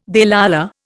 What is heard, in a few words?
"Delilah", Indian